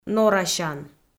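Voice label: "норасян", Komi, female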